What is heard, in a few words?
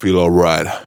Phrase Pitched; Vengeance Samples